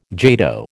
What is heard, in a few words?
"jato", American